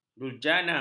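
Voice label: "durjana", Malay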